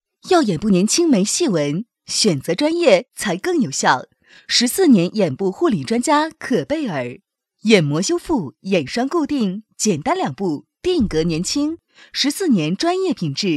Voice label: 女 品质广告 化妆品 眼膜

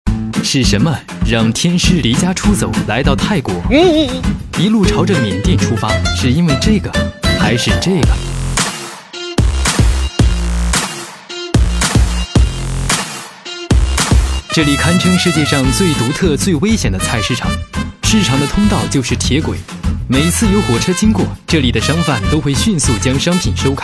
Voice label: 男 栏目导视; 云南电视台; 大口马牙周末秀

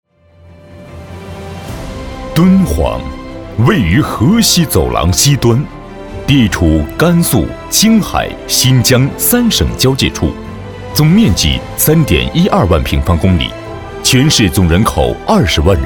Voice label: 男 纪录片, 浑厚; 敦煌博览馆

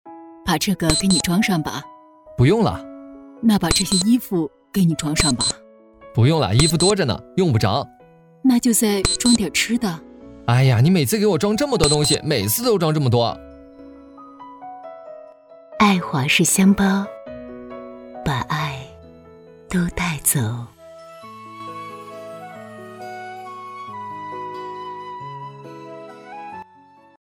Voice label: 女 男女旁白; 爱华仕男女

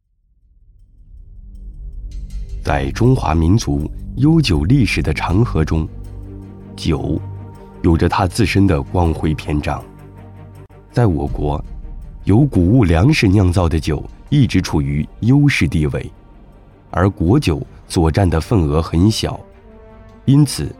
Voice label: 男 神秘记录风格 酒起源解说词

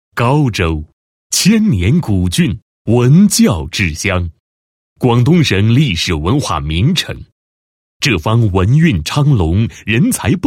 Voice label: 男 学校, 配音; 高州中学初中校区

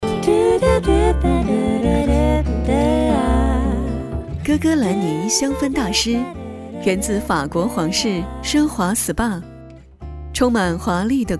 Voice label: 女 品牌广告 香氛 女人版; 香氛大师

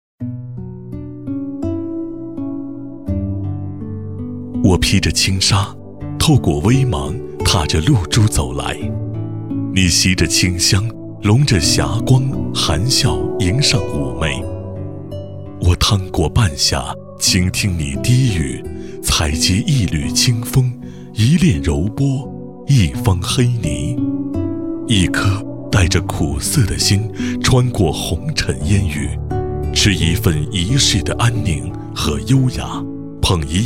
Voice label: 男声, 知性温暖, 国语; 国语男声配音; 交广主播; 莲之语

